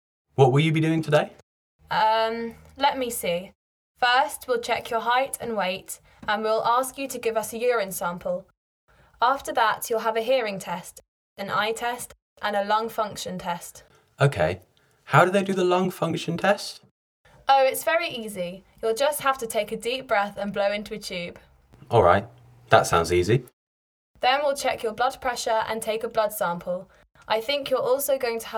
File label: Conversation; Bedside Manner Beginner